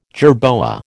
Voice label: "jerboa", American